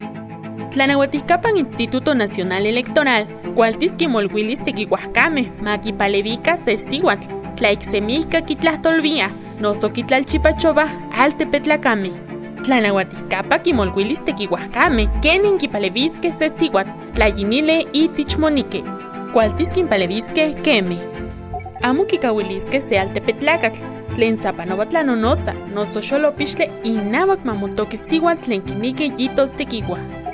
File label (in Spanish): NAHUATL